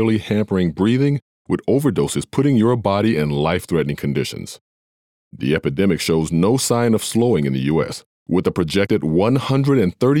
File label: Opioid PSA Voiceover